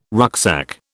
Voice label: "rucksack", British